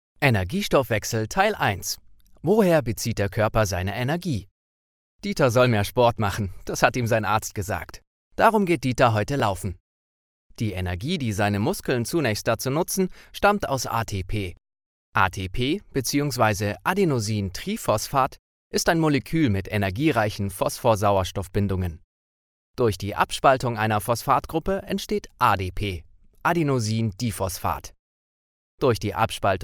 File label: elearn; Biochemie